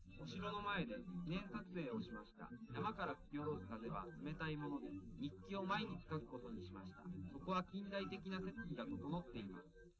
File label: male, live rec, 130ms, 1m